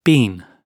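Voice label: "been", British English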